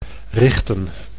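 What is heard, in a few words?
"richten", Dutch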